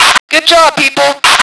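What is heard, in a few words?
female work; lmctf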